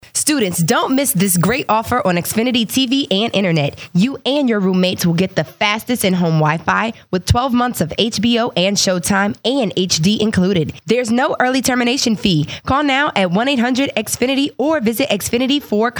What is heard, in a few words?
Spot